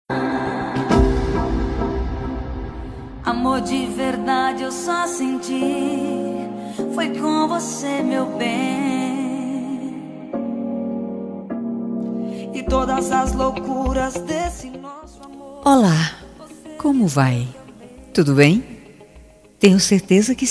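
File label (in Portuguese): ANIVERSARIO DE EX ESPOSO female, telemensagem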